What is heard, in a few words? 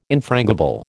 "infrangible", American